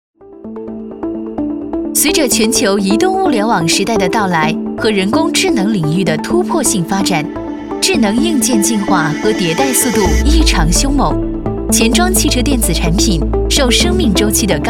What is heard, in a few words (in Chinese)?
女 科技; 全球移动物联网时代